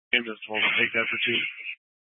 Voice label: Fire Dispatch

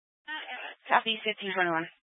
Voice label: Fire Dispatch

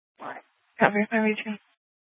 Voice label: Fire Department Dispatch, scanner; Grand Junction

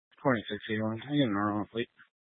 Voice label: Mesa County Sheriff Dispatch; scanner

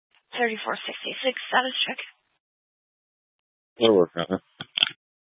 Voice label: Police Dispatch, scanner

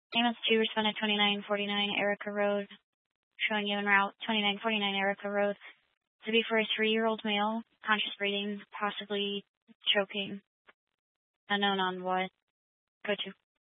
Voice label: Fire Department Dispatch, scanner

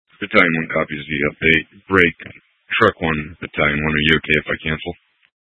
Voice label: Fire Dispatch, scanner

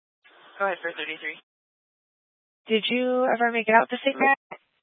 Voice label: Fire Dispatch, scanner

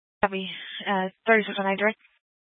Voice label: Police Department Dispatch; Grand Junction

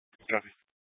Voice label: Police Department Dispatch

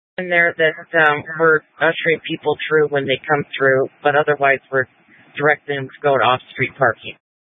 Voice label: Incident Tac 3, scanner

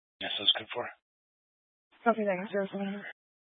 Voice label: Sheriff Dispatch, scanner; Mesa County

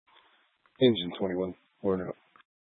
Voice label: Grand Junction Fire Department Dispatch

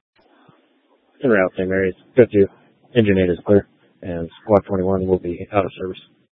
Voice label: Fire Department Dispatch, scanner; Grand Junction Fire Department Dispatch

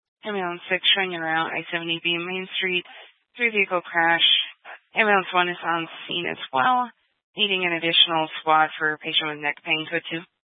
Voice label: Fire Dispatch, scanner

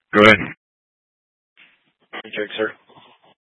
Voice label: Incident Tac 1, scanner

